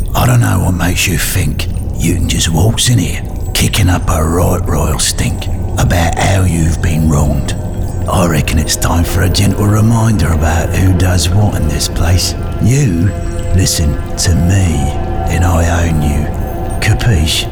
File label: Cockney